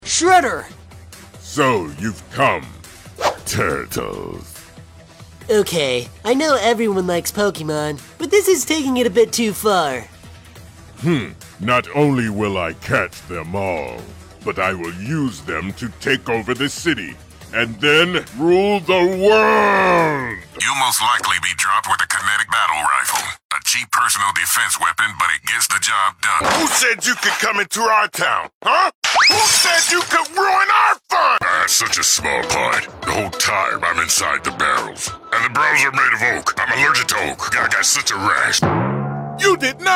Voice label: Animation Video Games